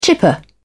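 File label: "chipper", English, British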